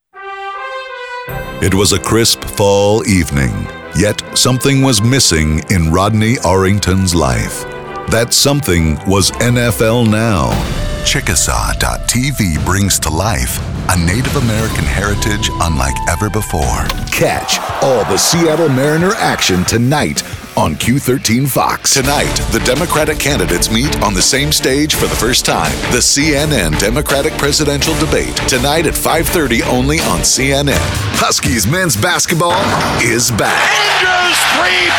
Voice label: men promos